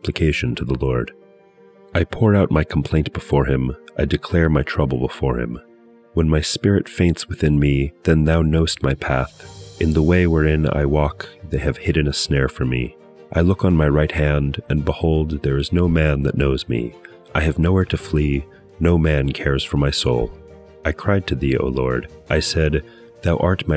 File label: poetry and prayer psalm english